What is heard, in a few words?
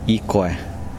meat, Kosraean